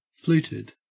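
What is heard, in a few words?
"fluted", Australian English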